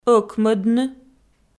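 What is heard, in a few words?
"ӧкмӧдны", Komi, female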